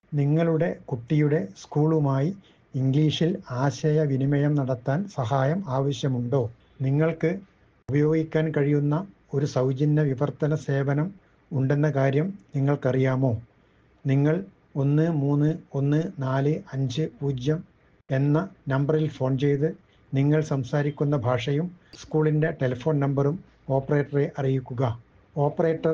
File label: malayalam, audio message